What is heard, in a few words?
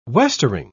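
"westering", British English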